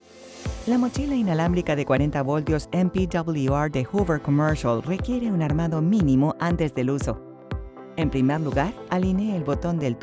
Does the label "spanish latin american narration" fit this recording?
Yes